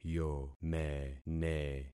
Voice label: "yomene", concat syllables; Affixing experiment